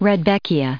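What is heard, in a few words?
"rudbeckia", American English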